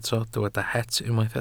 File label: "het", Welsh